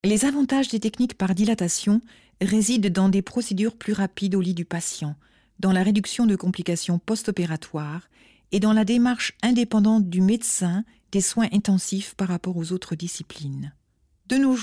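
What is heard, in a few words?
französisch, French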